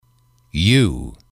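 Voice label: "you", male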